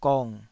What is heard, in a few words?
"gong3", taiwanese syllable